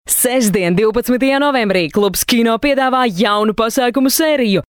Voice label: latvian f commercial, latvian voice demos